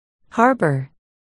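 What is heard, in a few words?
"harbour", spoken word, articulation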